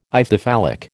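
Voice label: "Ithyphallic", American English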